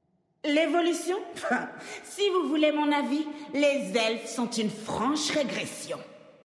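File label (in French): French Female Blague; Troll Zandalari; Evolution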